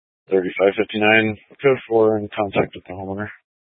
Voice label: Police Department Dispatch, scanner